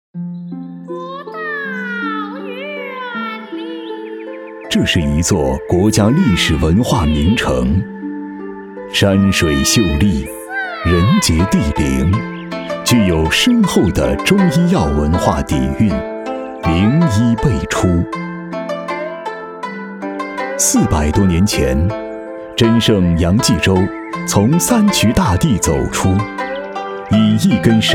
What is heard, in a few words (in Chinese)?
男 宣传片 国风; 银针凭妙手; 白衣秉丹心